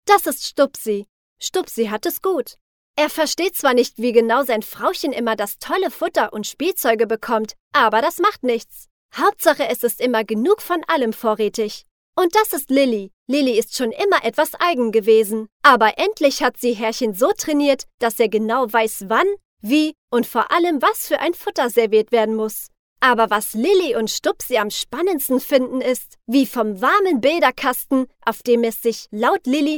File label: german others